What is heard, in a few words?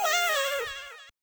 LIL CUTE ASS AHH, Vox